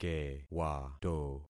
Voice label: "kewato", concat syllables; Affixing experiment